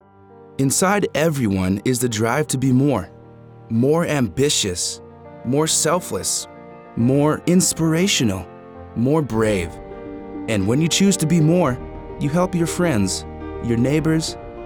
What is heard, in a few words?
Radio Ad